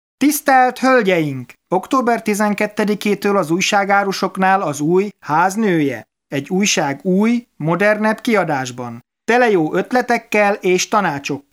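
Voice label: M HU commercial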